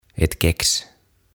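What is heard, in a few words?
"Ett Kex" Swedish; Keksi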